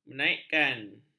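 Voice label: "menaikkan", Malay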